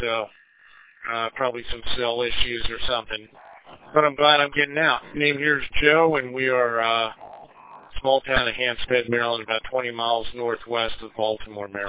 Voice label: qso mobile